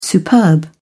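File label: "superb", English, uk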